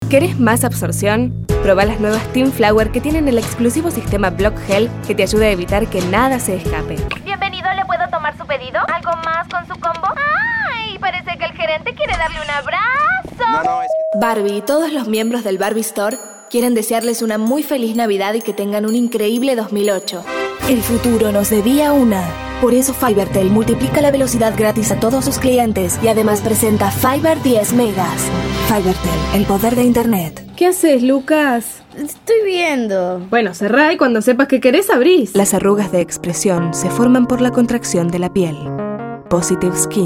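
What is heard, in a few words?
latam female commercial; voice demos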